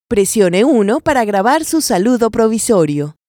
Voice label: mexican spanish Record Temporary Greeting

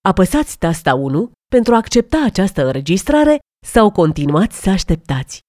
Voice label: romanian Accept This Recording

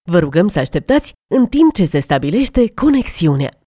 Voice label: romanian Agent Confirmation

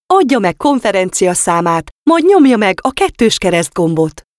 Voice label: hungarian Enter Conference Number

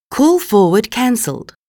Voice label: australian english Call Forward Cancelled

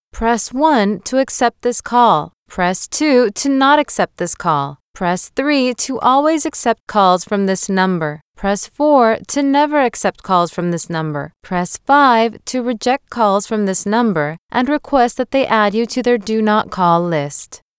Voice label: american english Accept Call Navigation

